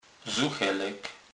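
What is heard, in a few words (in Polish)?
"zuchelek", Kurpie dialect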